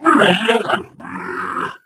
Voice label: impatient

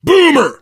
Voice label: warn boomer, player survivor voice biker; source engine; left 4 dead 2

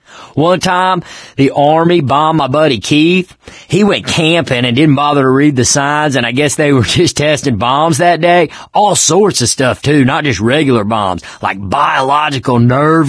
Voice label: stories, mechanic; ellis; Left 4 Dead 2; Source Engine; video games

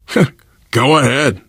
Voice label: lead on, survivor voice; source engine; left 4 dead 2

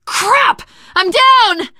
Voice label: incapacitated initial, survivor voice teen girl

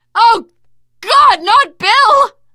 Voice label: grief, teengirl; video game; source engine; left4dead2; survivor

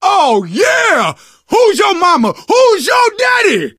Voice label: taunt, survivor voice

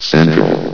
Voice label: "central", vox; Source Engine; bshift

